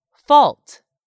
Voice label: "fault", English; 3000 words

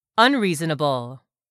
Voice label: "UNREASONABLE", English; 3000 words

